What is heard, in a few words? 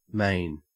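"main", Australian English; pronunciations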